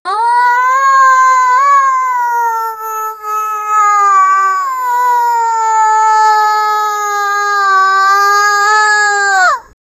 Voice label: a cute voice of a, japanese